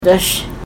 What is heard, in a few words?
Pronunciation Guide dash; Biigtigong